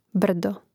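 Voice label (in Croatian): "brdo", Hrvatski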